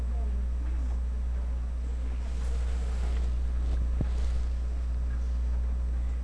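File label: "lead", spoken word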